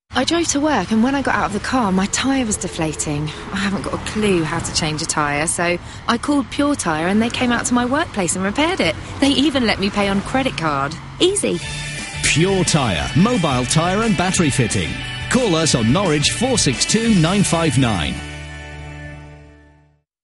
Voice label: radio ad